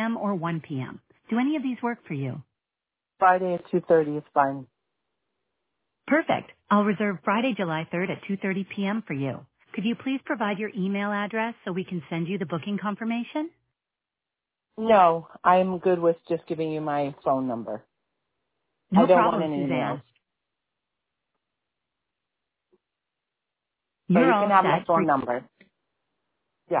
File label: voice ai call bleeped